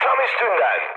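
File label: bomb success, mood high, Turkish; speech; War Thunder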